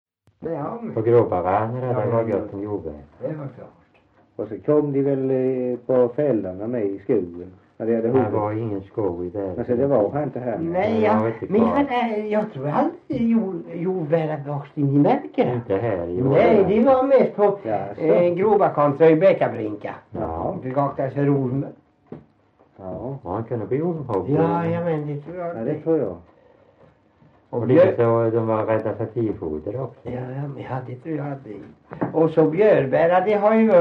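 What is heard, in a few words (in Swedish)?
Värö Halland, dialekter svenska sagor; Folkminnen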